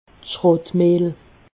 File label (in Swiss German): "schrootmehl", alsace